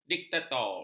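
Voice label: "diktator", Malay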